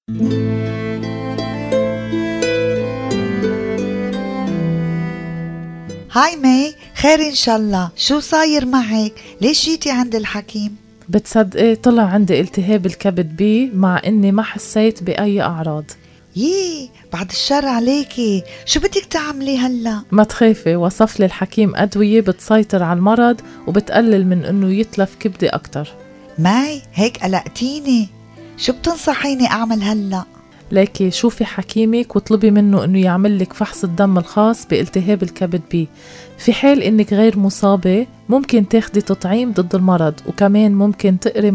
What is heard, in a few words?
Dialogue, arabic; HepB; PSA